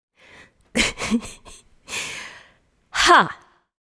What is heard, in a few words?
hah pronunciation guide